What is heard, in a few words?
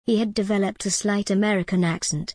en gb he had developed a slight american accent